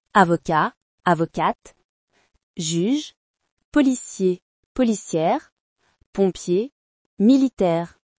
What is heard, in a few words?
job in french